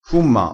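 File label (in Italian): "fumma", vocabolario dialettale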